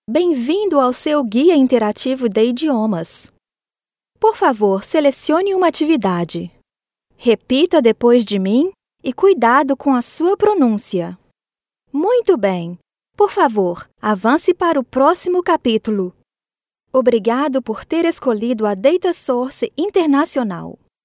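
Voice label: Brazilian female corporate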